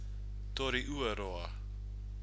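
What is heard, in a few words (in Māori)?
"tori uaroa", Māori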